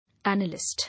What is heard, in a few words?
"Analyst", English